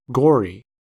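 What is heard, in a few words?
"gory", US English; pronunciations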